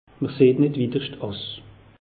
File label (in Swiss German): "witerscht", alsace; meteo